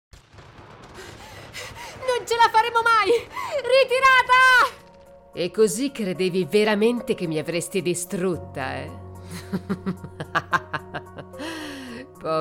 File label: italian video games